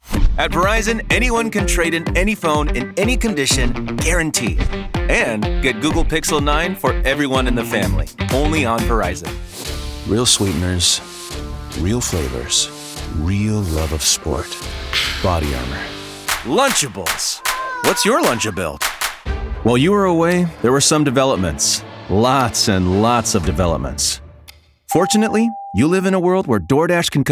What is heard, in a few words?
commercial